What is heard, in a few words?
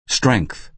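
"strength", American English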